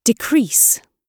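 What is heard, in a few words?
"decrease", British English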